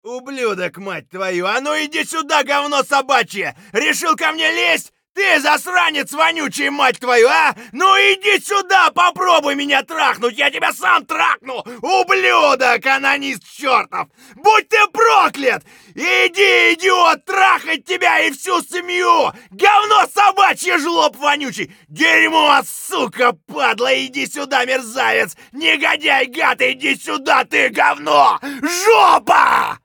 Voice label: scav battlecry; eft